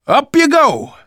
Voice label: revive friend, survivor voice manager